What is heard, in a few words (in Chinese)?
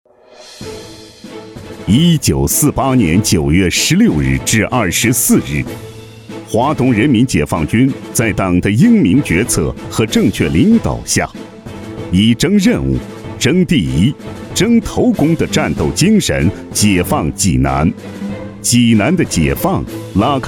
部队建设 大气 历史专题; 部队建设大气历史专题不忘初心跟党走